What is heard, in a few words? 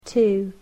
"two", English